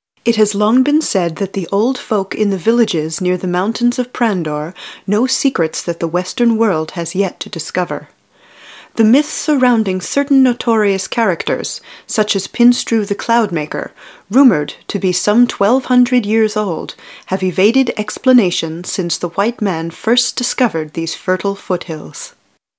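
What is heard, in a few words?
F EN documentary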